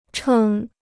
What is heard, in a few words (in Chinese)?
"称", Chinese